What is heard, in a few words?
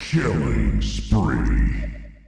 "killing spree", male